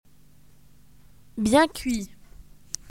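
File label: "well done", French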